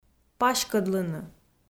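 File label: "паськӧдлыны", Komi, female; audio dict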